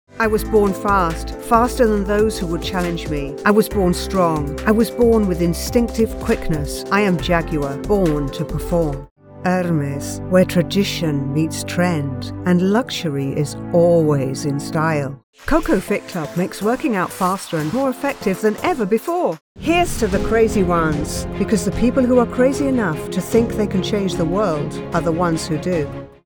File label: Commercial, British, Female, Neutral Female Voice Over; English (British)